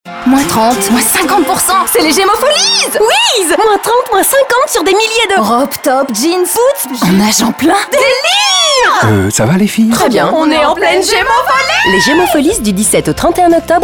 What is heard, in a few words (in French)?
Pub radio folies aigu; Gemo